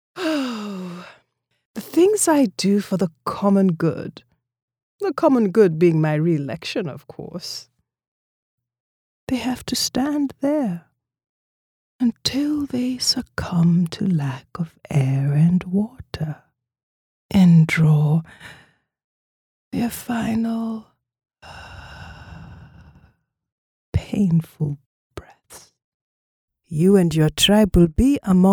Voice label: english african video games